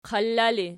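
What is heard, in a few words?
"qalla" ergative, Archi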